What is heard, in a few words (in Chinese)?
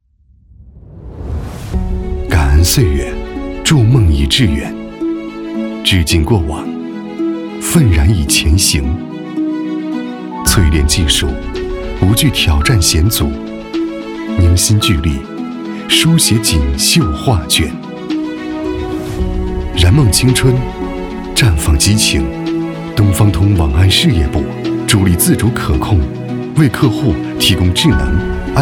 男 汇报片 科技讲述; 东方通网安事业部